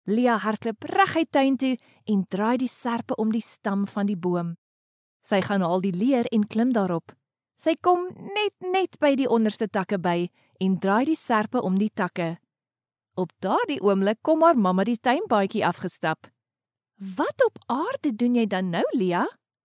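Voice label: Afrikaans, female, voice over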